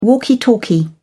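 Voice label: "walkie talkie", British English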